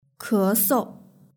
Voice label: "kesou", Mandarin; kanyisheng